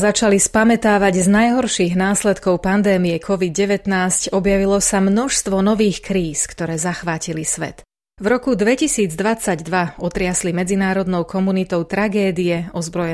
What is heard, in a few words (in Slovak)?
slovak; sbs